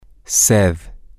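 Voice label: Spanish, thirsty, male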